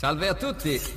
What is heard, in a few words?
"hello" italian; hello there